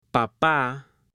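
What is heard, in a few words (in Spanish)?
"papa", Spanish, female; AppLenguaje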